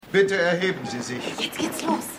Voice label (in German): Gerichtsdiener Frau